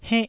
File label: "hennh1", taiwanese